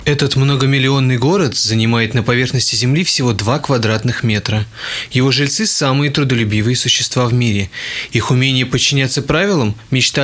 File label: voice clean